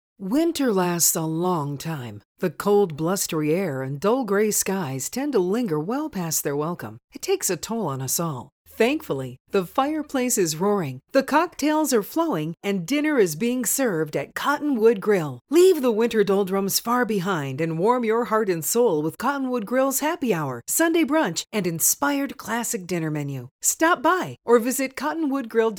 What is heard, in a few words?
Commercials